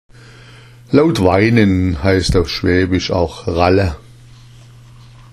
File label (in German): "ralla", schwaebisch; Laut weinen heißt auf schwäbisch auch ralla